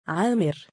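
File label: "3aamir" male, Arabic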